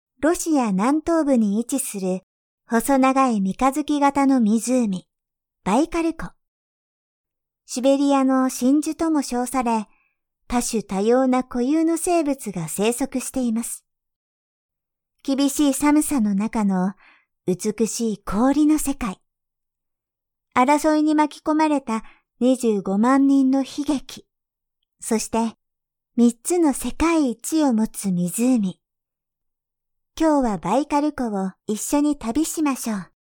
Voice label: narration

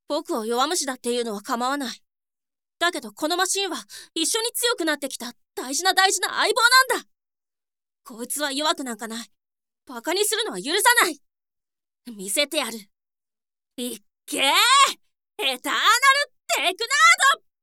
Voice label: boy

